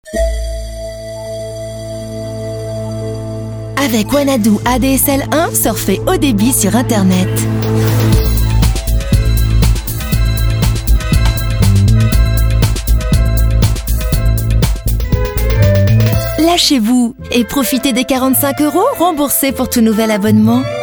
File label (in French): sportive; Wanadoo